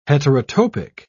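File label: "heterotopic", English, British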